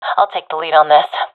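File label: request follow me, professional female; vo; Counter Strike Model Pack; CS:GO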